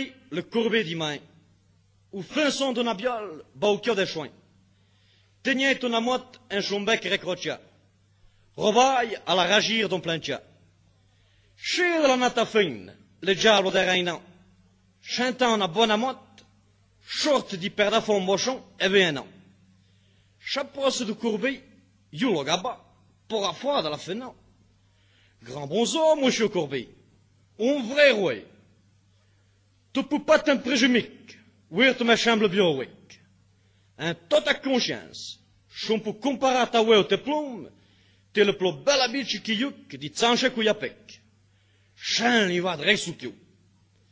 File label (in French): patois d'Heremence; le corbeau et le renard; patois valaisans